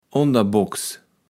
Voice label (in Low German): "Onderbocks", Platt